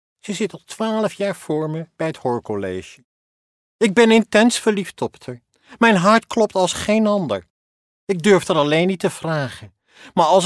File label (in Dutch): Typetjes animatie en nasynchronisatieworkshop